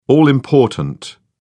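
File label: "all-important", English, British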